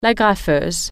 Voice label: "stapler", french